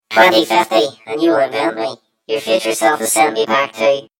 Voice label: story voiceover; yumpod